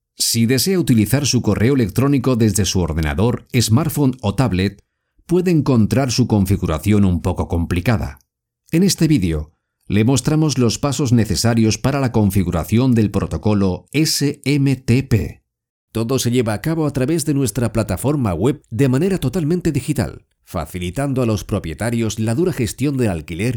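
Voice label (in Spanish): e-learning, Spain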